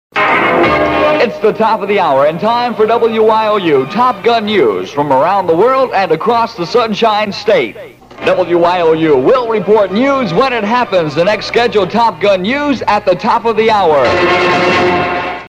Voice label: news intro close; WYOU; 1967

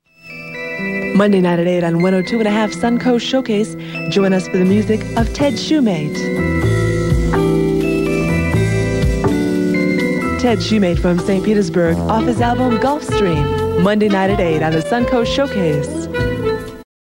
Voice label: promo; Suncoast Showcase

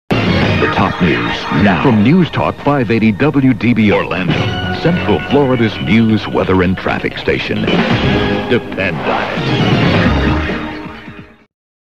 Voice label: news intro; WDBO; 1998

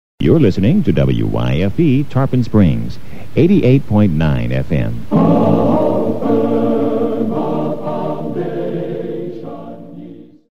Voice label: Station ID; WYFE

